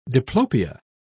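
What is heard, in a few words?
"diplopia", British English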